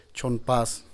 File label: "txonn pas", Teotitlán del Valle Zapotec